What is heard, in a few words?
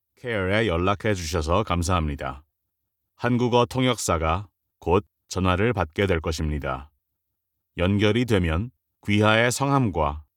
korean ivr